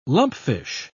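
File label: "lumpfish", British English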